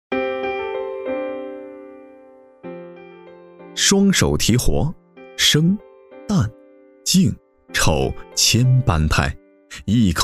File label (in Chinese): 纪录片, 舌尖风格; 非物质文化遗产; 木偶戏